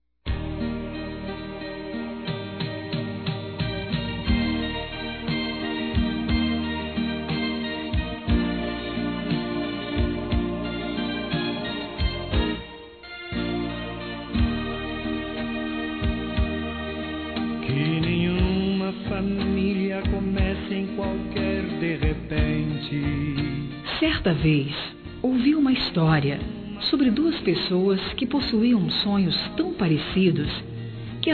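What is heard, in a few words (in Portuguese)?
Saudade da Familia, Feminino; Telemensagens; Saudades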